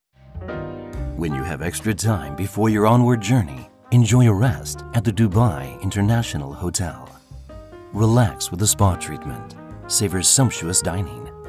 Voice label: smooth tone

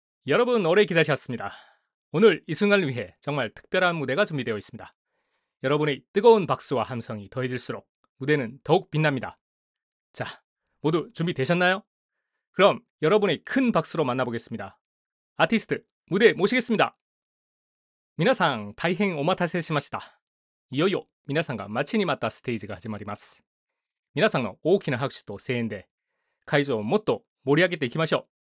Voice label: voices sample live fanmeeting

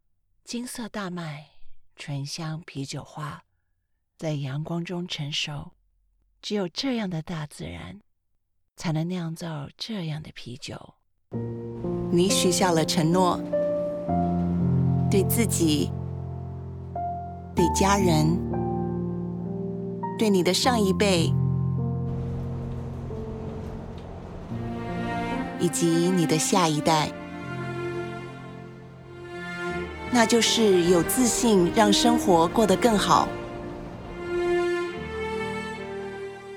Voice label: Commercial Softer

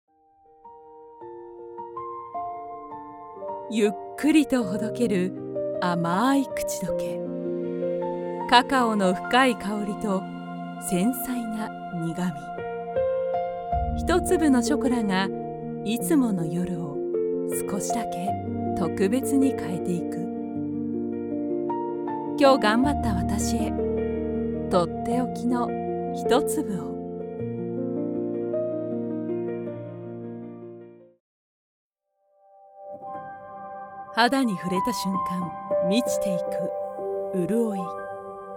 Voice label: Commercial Luxury

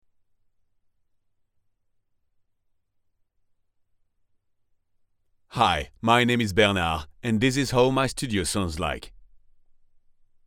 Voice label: Home Studio quality

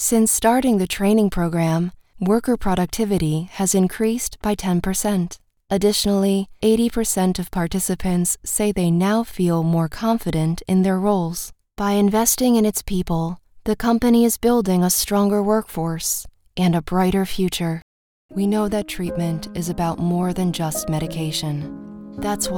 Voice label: Corporate and Commercial

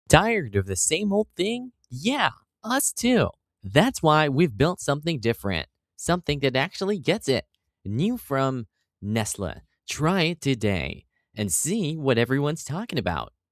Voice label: advertisement

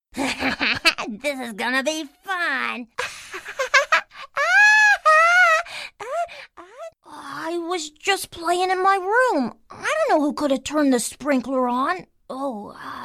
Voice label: character voices various childrens voices

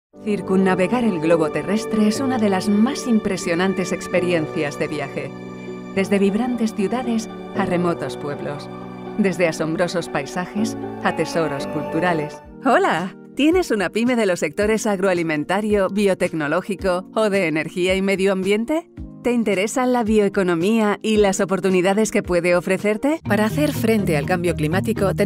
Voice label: CORPORATE

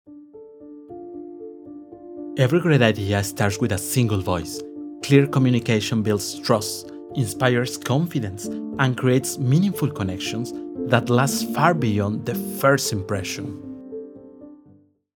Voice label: English

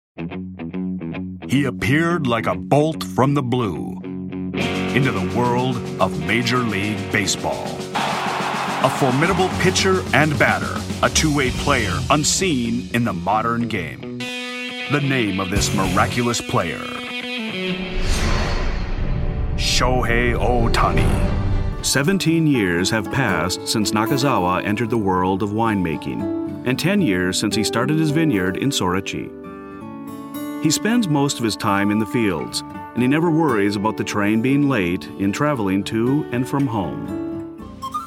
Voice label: Documentary Compilation

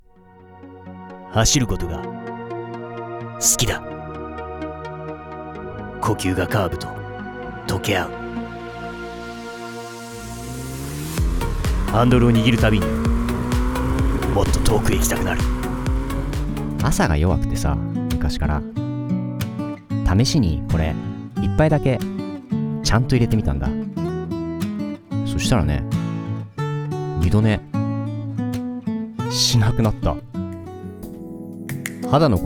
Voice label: Japanese Commercials

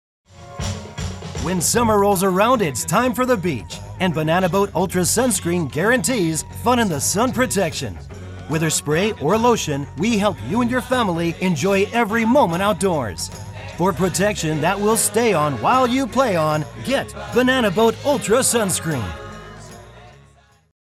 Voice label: upbeat tone

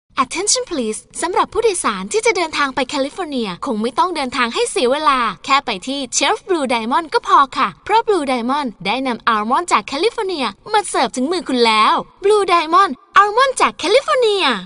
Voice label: Spot; Bluediamond; Barbie

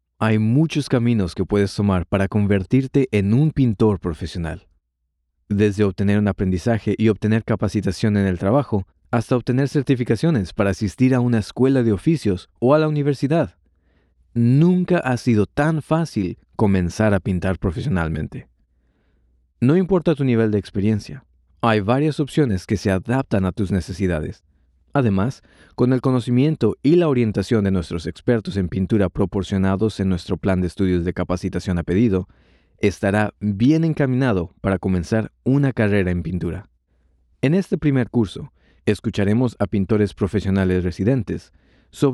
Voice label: Painting e-Learning intro Spanish

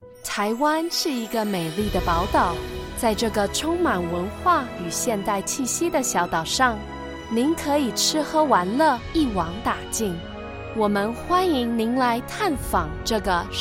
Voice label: VO Reel

